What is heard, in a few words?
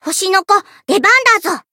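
Voice prince variable chant, Japanese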